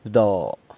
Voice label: "bdo" baby; San Lucas Quiavini